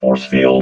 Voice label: "forcefield", robot

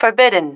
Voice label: "forbidden", female

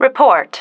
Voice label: "report", female

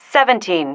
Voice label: "seventeen", female

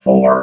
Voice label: "four", robot vox

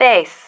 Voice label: "base", female

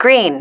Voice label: "GREEN", female

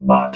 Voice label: "bot", robot vox

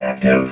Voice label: "active", robot vox

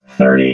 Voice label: "thirty", robot

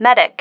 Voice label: "medic", female

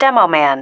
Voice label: "demoman", female

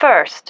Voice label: "first", female

